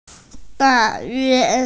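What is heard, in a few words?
"da4yue1", Mandarin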